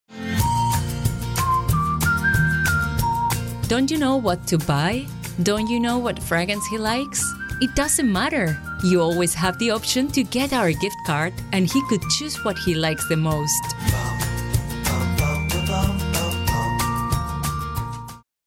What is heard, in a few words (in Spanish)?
TARJETA REGALO (INGLES); perfumerias; ofertas